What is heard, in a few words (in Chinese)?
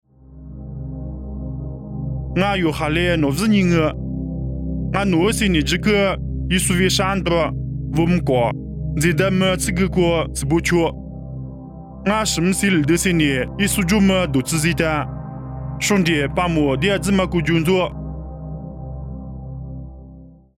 凉山彝语; 地中海拔摩